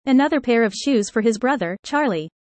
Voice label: user fast; how tall